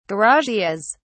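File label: "garages", English, British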